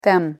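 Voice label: "them", English pronunciation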